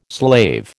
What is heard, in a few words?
"slave", American